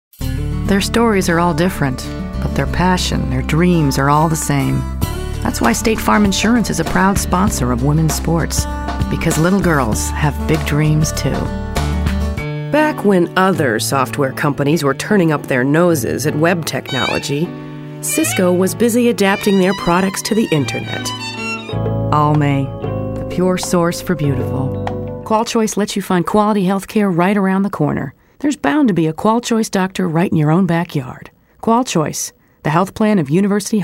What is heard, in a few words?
commercial VO